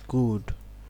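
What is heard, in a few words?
"good", Nigerian Pidgin, male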